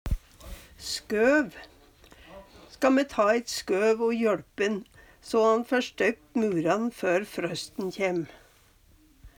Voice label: ta eit skøv, Numedalsmål; Ska me ta eit skøv o jøLp'n, so han fær støyft muran før frøsten kjem?

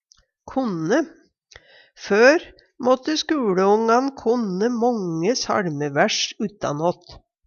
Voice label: konne, Numedalsmål; Verb

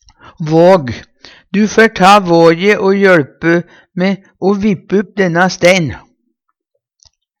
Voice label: vag, Numedalsmål

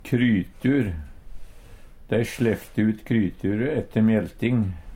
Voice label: krytur, Numedalsmål

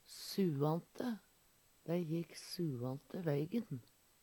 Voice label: suante, Numedalsmål

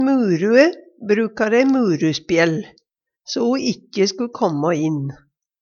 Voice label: muru, Numedalsmål; Substantiv hokjønn

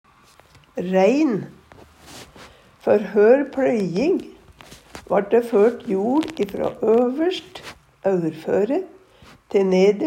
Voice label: "rein", Numedalsmål

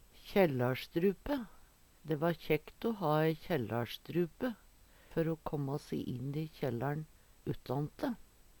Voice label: "kjællarstrupe", Numedalsmål

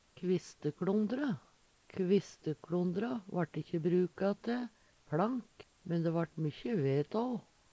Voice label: kvisteklondre, Numedalsmål

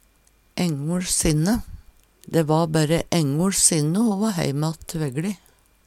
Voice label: engoL sinne, Numedalsmål

